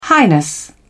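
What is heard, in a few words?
"highness", British English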